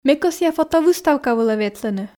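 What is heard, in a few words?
Komi, female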